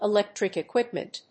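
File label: "Electric equipment", English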